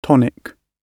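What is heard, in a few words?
"tonic", English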